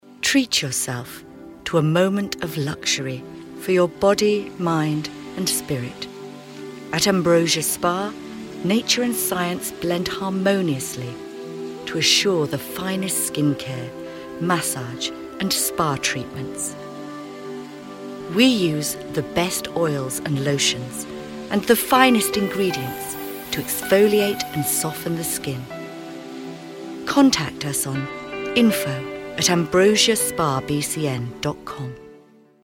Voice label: female british english spa comercial; ideasonora